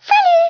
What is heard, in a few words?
"bye bye", French